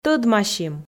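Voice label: "тӧдмасим", Komi, female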